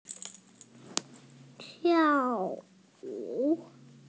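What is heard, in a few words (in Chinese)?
"tiao4wu3", Mandarin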